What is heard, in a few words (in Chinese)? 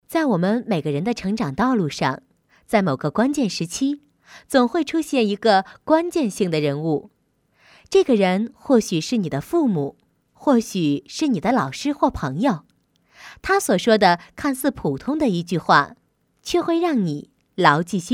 女 贝索斯及祖父母的故事, 课件读物配音